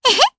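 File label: Vox Happy Japanese; Estelle; kingsraid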